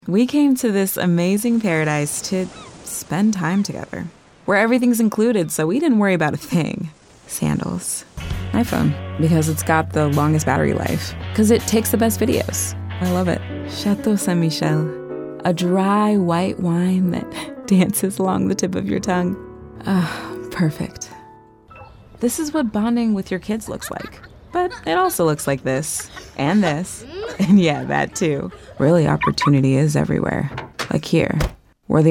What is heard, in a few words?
Commercial, femalevoices